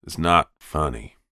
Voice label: human voice character american male 40s says its not funny